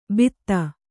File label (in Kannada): "ಭಿತ್ತ", Kannada